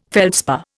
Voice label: "feldspar", Indian